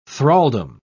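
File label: "thraldom", British English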